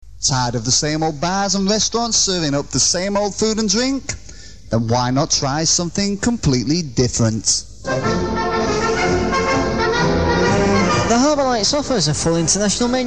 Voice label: ads; harbour lights